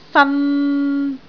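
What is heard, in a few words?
"fan3", Cantonese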